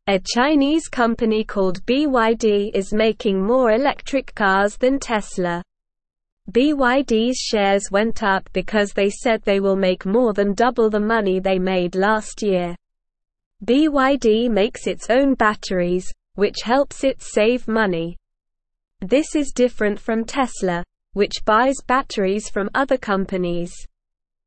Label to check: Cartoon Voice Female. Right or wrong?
Wrong